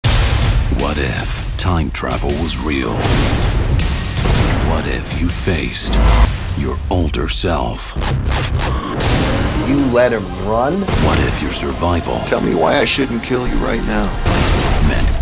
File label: TRAILER